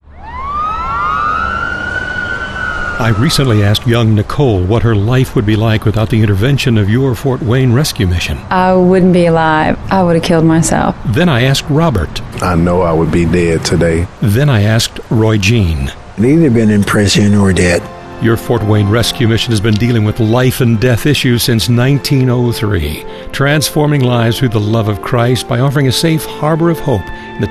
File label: thanksgiving spot